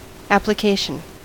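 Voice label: "application", American English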